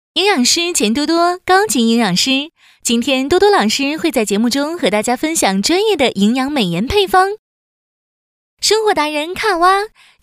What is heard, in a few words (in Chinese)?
宣传片 台湾腔, 女; 病毒; 台湾腔美肤庄园